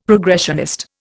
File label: "progressionist", Indian; Dictionary